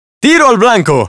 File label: Spanish - dialogue, Lazer Gremlin Expressions